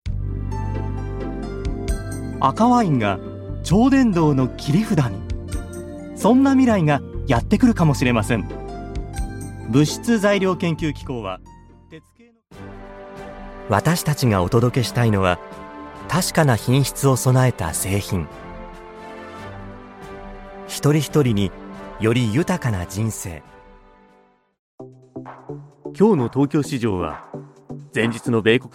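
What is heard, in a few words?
male, Japanese, corporate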